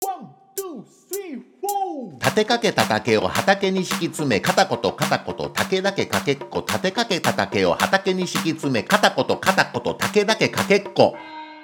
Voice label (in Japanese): 早口言葉リズム（中級編）